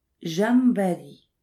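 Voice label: "crevette", French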